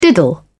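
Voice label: "diddle", British English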